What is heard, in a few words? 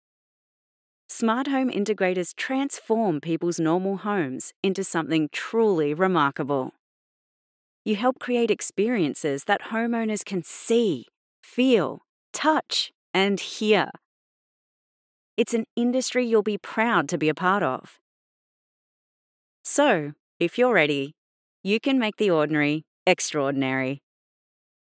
Corporate Narration AU Tech Education, authentic, grounded, confident Female